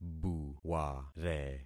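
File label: "buware", concatenated syllables; Affixing experiment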